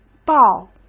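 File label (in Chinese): "报", Mandarin Chinese; chinese-flashcards; hsk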